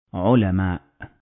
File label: "Ulama", Arabic